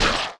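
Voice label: Goblin damage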